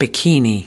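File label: "bikini", US English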